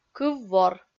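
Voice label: "кыв-вор" Komi, female; kpv audio dict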